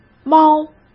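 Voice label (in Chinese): "猫", Mandarin Chinese; hsk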